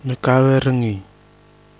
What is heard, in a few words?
"nɨkava riki", Kwamera